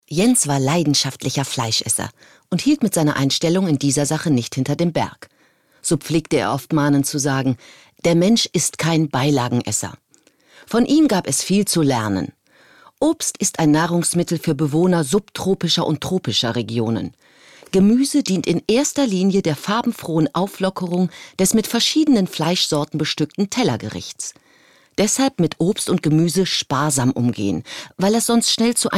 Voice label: erzaehlung

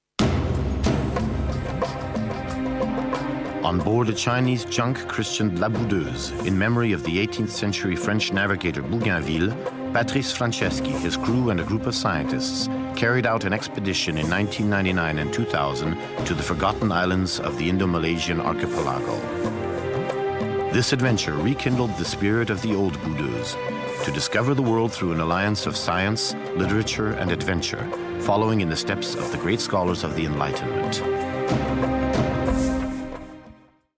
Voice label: us englisch

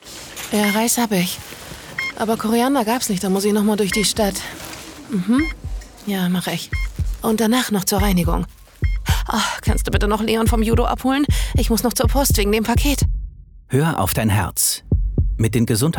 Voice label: Werbung Szene; AOK

